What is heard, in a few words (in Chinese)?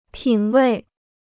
"品位", Chinese